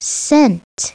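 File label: "sent", English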